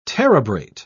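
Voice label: "terebrate", British English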